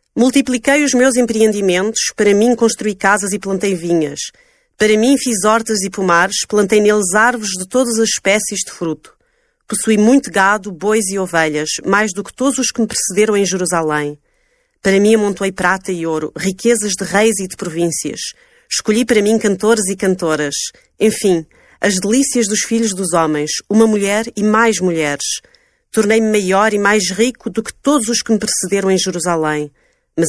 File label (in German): Portugal W